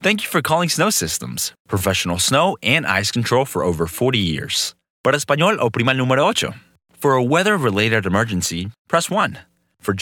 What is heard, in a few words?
english ivr